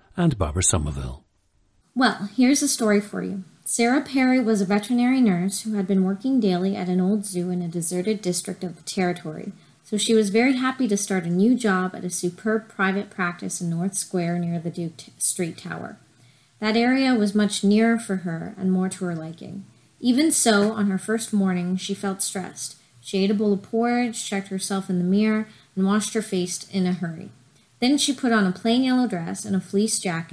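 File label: ontario, International Dialects of English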